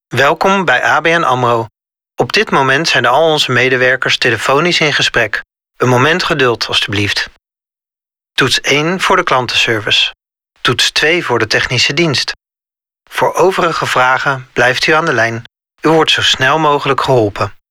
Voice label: Interactive Voice Response, telefoon